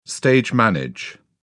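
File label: "stage manage", British English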